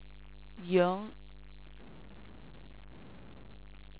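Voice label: "yuan", Chinese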